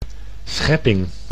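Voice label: "schepping", Dutch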